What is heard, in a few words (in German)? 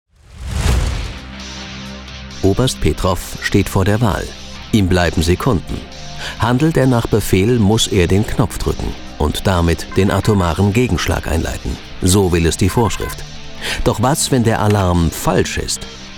doku atomkrieg